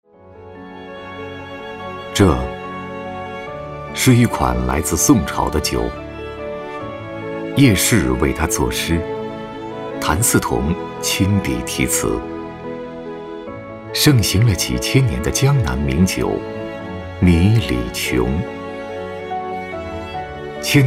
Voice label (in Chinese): 男 广告 酒 磁性韵味